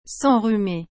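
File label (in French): "s'enrhumer", French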